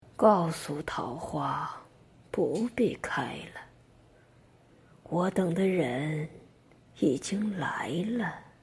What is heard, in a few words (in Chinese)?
老奶奶